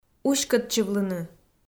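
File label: "уськӧдчывлыны", Komi, female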